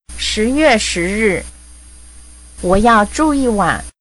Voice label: Mandarin Conversation